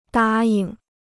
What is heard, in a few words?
"答应", Chinese